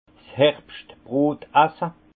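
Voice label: "herbschtbrot", Haut Rhin; vin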